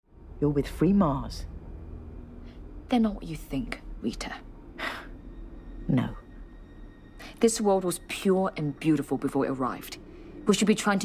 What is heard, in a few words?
Audio Drama Reel; BBC; Murder on Mars; Tiger Girls; Made in Taiwan